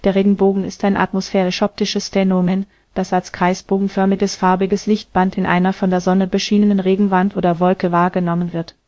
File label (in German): speaker, de DE; piper-voices